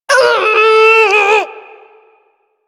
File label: Ability Lift, Barks; Warframe; Corpus Lich; Persona A